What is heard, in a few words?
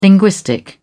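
"linguistic", British English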